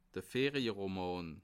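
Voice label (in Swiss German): "ferieroman", Bas Rhin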